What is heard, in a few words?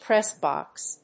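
"press box", English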